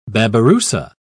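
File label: "babiroussa", British English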